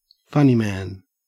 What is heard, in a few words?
en au funny man